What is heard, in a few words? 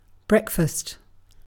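"breakfast", British English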